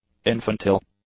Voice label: "infantile", English; adjectives

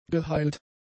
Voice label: "geheilt", German; adjectives

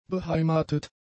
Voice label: "beheimatet", German; adjectives

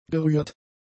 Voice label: "gerührt", German; adjectives

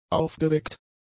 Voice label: "aufgeweckt", German; adjectives

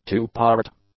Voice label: "two-part", English; adjectives

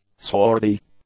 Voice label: "swarthy", English; adjectives

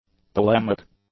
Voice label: "polemic", english; adjectives

